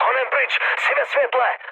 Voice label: searchlite, speech cze, mood med; War Thunder

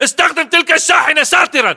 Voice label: order cover truck, battle chatter, english

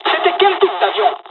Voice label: dialog, dialogs french; midway; War Thunder

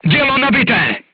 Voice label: voice message charged, crew tanks czech

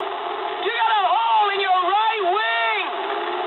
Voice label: eng right wing mood high, speech eng; War Thunder

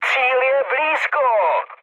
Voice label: near waypoint, speech cze, mood low; War Thunder

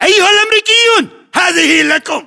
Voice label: inform attack grenade, battle chatter, english

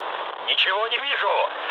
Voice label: searchlite, mood low, speech ru; War Thunder

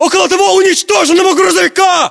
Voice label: landmark near truck destroyed, ru; localized english

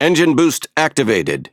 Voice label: Speech Consumable Forsage Start, EN; World Of Warships

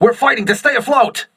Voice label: voice message repairing, crew ships english

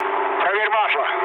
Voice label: damaged oil, mood low, speech ru; War Thunder

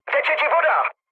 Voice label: damaged water, speech cze, mood low; War Thunder

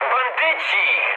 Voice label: enemy detected mood med, speech pol; War Thunder

